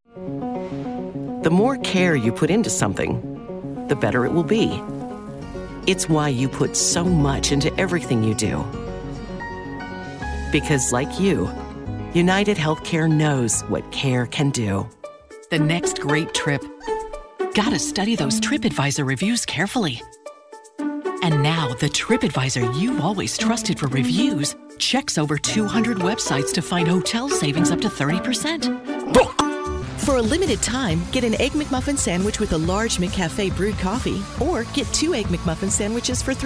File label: COMMERCIAL for North American TV and Radio campaigns